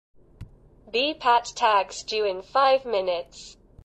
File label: british